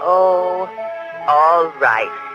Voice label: "oh all right" female